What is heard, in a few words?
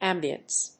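"ambiance", English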